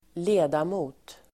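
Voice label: "ledamot", Swedish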